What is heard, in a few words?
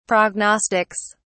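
"prognostics", British English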